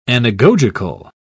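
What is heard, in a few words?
"anagogical", British English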